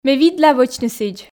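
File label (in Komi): ме видла вӧчны сідз, Komi, female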